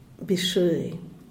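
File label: "bishuey", Zapotec, Díaz Ordaz; desayuno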